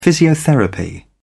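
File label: "physiotherapy", British English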